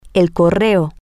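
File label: the post office, Spanish, female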